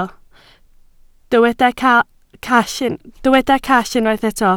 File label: "call", Welsh